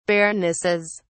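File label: "baronesses", British English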